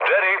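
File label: Serbian enemy detected mood low; speech; War Thunder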